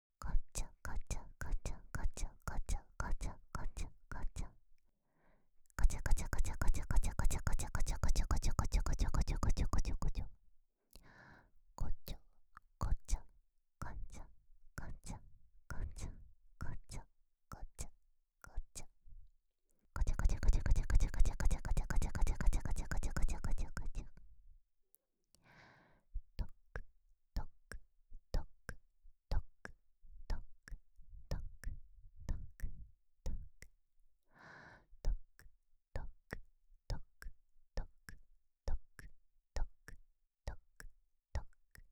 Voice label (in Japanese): 密着囁きオノマトペ